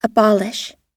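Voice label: "abolish", American English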